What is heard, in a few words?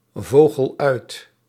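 "vogel uit", Dutch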